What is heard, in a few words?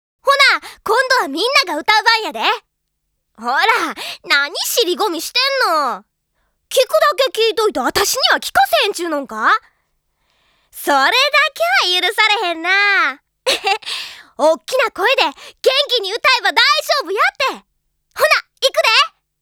Ending Narration; Ojamajo Doremi; Ojamajo Doremi MEMORIAL CD BOX